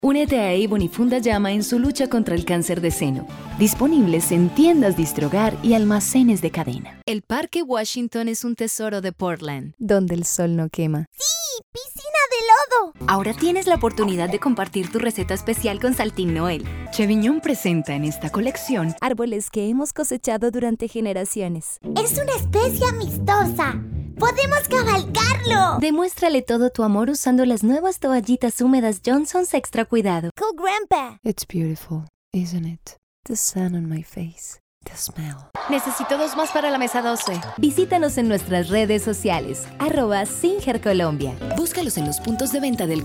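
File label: voice over General Reel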